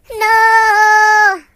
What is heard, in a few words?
"Nooo", Singer